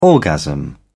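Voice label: "orgasm", British English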